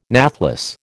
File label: "nathless", American